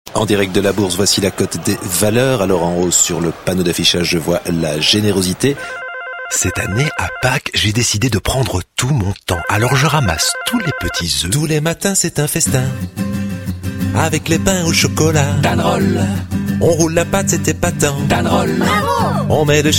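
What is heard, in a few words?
FR M various, French, male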